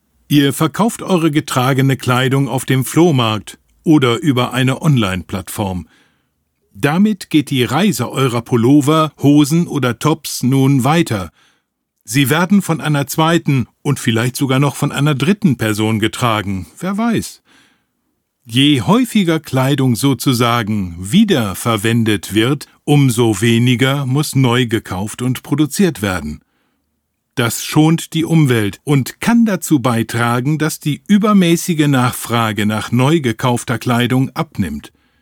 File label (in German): E-Learning